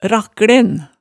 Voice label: "rakklen", hemsedalsmaalet, tale

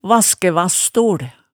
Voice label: "vaskævasstol", hemsedalsmaalet tale

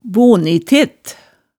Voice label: "bonitet", hemsedals maalet tale

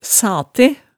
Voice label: "sate", hemsedalsmaalet

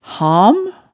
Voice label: "ham", hemsedalsmaalet

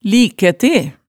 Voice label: "likæte", hemsedalsmaalet tale

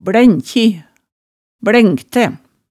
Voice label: "blænkji, blænkte", hemsedals maalet tale

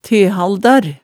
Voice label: "tehaldar", hemsedalsmaalet, tale

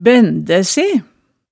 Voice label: "bændæ se", hemsedalsmaalet tale

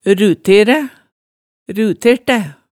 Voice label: "ruteræ", hemsedalsmaalet, tale

